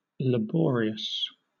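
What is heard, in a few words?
"laborious", British English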